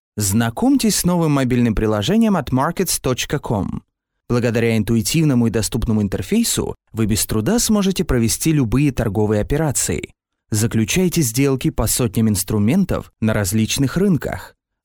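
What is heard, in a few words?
Russian Russia male sales; russian voice over talent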